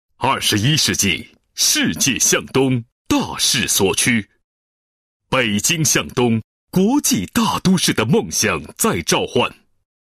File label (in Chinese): 男 专题 大气地区宣传介绍, 国语男声配音; 北京通州